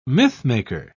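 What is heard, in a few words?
"mythmaker", British English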